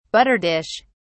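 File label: "butter dish", English, British English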